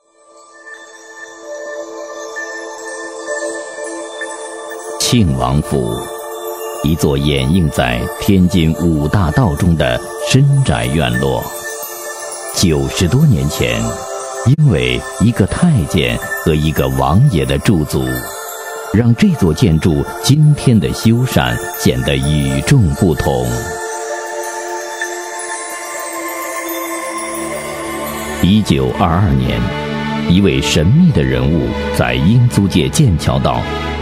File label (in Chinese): 男国 历史片; 庆王府; 纪录片配音